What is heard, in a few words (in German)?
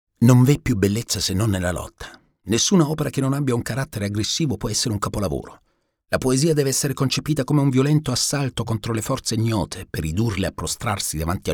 Doku ital; Doku / Fremdsprache; italienisch; selbstbestimmt / fordernd